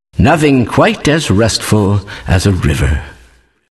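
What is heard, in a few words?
announcer spectator; Kleiner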